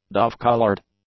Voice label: "dove-coloured", English; adjectives